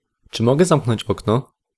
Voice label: may i close the window, pl